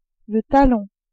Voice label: "talon", French; corps